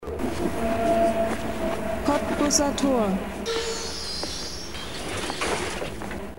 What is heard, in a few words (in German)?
ansage kottbusser tor; linie1